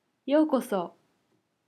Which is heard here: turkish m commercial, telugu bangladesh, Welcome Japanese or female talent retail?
Welcome Japanese